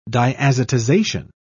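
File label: "diazotization", British English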